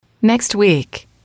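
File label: "next week", English, female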